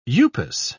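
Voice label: "upas", English, British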